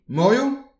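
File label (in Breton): "moriou", Breton; petit precis de prononciation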